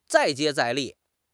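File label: "再接再厉", Mandarin Chinese; HSK6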